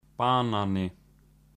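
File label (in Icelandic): "banani", Icelandic; matarhefti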